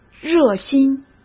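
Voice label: "热心", Mandarin Chinese; chinese flashcards; hsk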